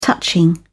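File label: "touching", English, British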